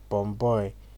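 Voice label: "bomboy", Nigerian Pidgin, male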